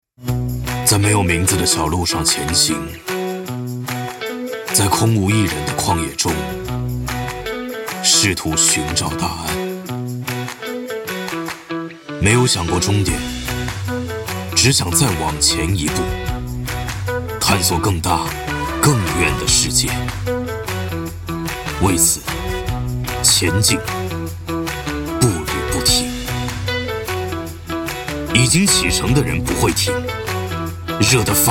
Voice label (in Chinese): 男 酷 FUNK; 微众